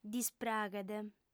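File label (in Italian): "dispraghet", lingua sarda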